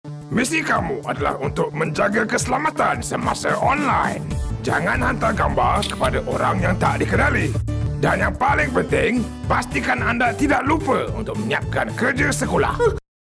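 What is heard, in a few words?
Bahasa Malaysia Animation; MAMEE MONSTER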